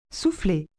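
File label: "souffler", French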